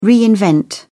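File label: "reinvent", British English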